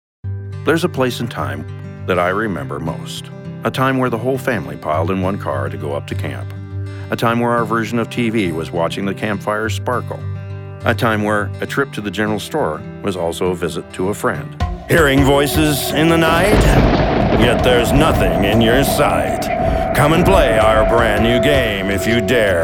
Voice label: commercial, voiceover; Demo